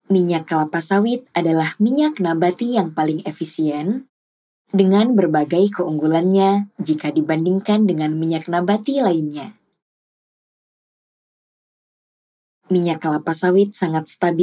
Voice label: FORMAL INDONESIA